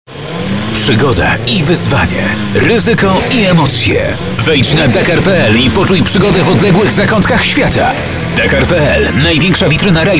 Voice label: COMMERCIALS